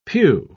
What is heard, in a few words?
"pew", pronounce